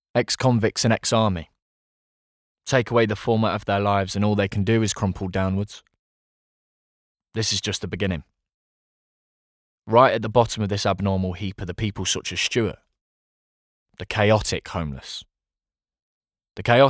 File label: Narrative